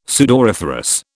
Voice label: "sudoriferous", British

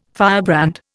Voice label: "firebrand", Indian

